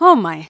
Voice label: cashier female wondering